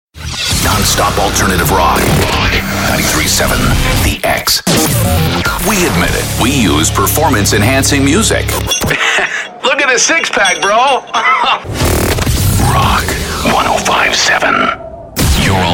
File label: Radio Jingles Liners; Jugendfrei; NATIVE PROFISPRECHER